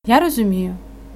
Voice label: dialogue, Ukrainian